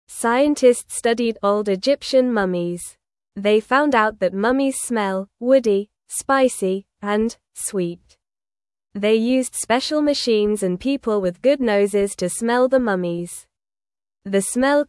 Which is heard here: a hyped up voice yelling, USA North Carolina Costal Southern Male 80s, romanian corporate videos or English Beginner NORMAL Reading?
English Beginner NORMAL Reading